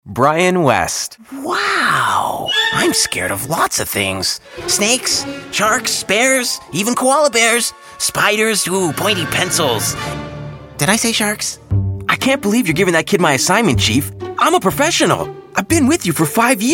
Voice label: character reel, Young & Friendly Canadian Male Voice